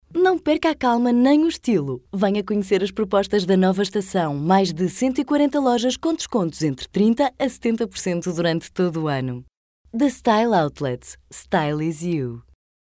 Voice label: portuguese female

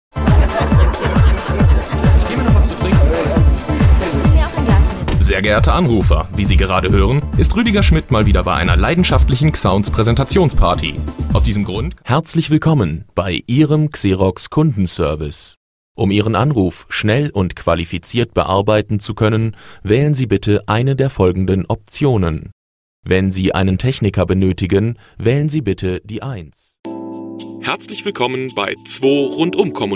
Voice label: german male; dictor

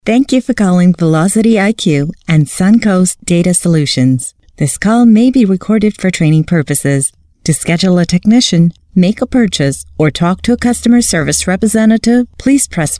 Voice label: eng canadian female; dictor

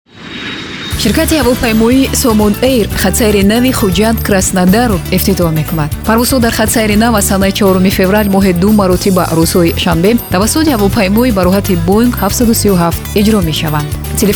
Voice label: tajik female

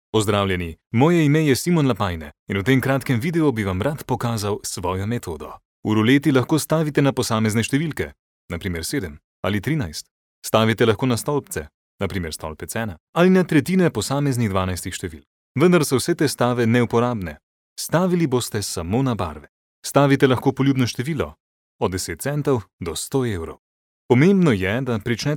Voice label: slovenian male

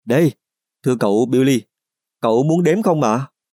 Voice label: vietnamese male

